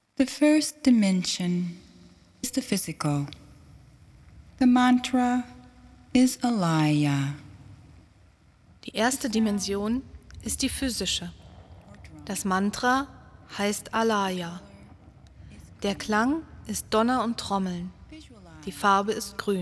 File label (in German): Anleitung; Seelenreise 3 Physisch